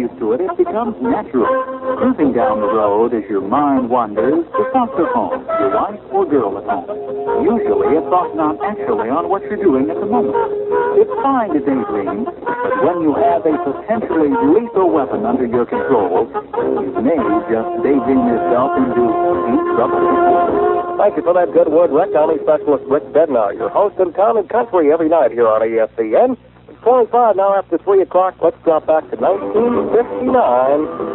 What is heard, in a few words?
Driving PSA